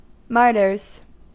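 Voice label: "martyrs", US English; pronunciations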